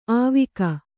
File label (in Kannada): "ಆವಿಕ", Kannada; āvika